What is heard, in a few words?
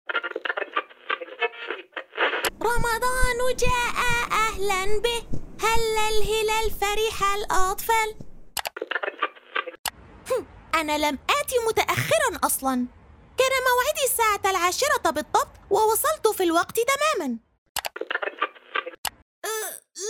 Kids Storytelling Characters Arabic; Characters- Kids' Stories